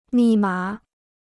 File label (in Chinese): "密码", Chinese